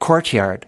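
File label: "courtyard", US English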